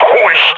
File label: near panic